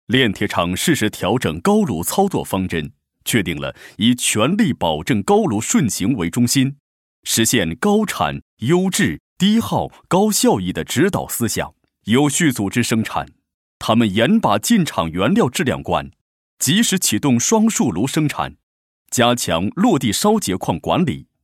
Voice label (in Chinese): 男 人物; 领跑者